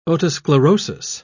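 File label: "otosclerosis", British English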